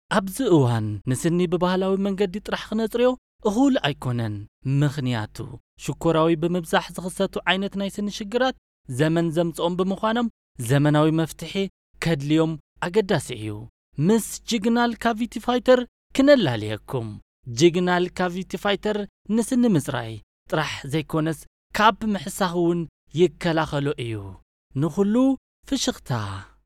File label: Tigrinya, male